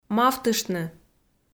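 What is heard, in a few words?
"мавтыштны", Komi, female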